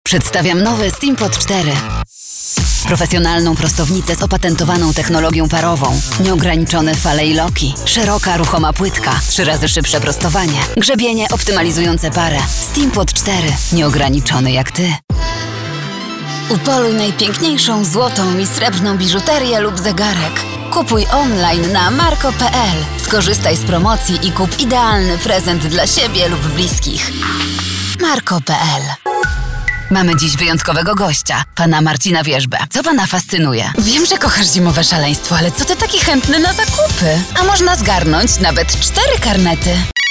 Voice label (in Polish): mix reklam